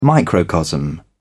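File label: "microcosm", British English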